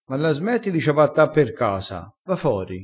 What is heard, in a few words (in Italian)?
"ciabatta", crespinese